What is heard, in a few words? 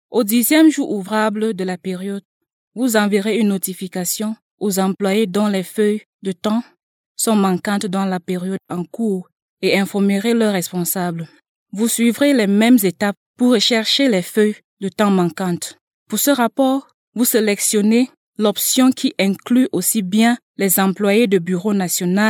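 French, African, female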